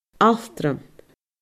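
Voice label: "altram", Scottish Gaelic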